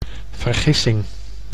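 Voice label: "vergissing", Dutch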